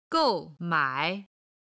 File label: "gou4mai3", chinese